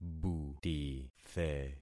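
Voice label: "butithe", concatenated syllables; Affixing experiment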